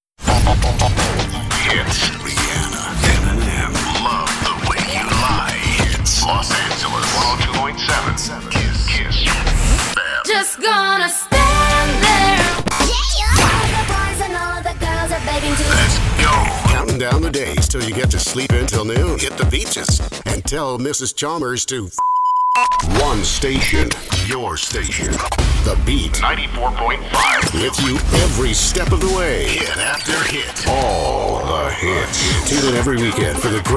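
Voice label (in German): American English, Cool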